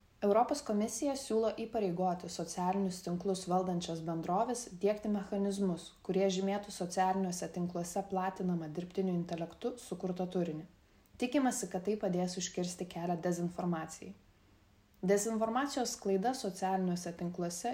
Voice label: Lithuanian